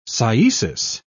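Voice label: "cyesis", British English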